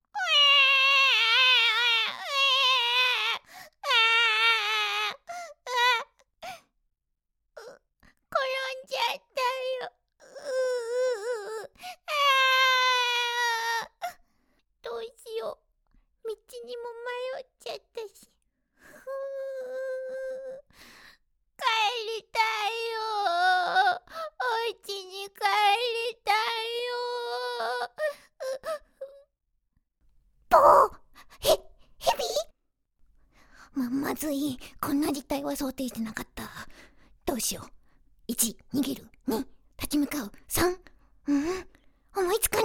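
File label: Japanese, Child Voice; GoLocalise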